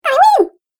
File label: Cartoon Game Voice "I Win"; Cartoon Voice Sound Effects